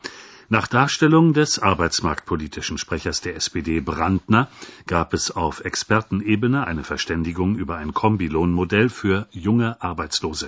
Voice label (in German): arbeitsmarktpolitischen Sprechers, deaccented nouns; dirndl adjective noun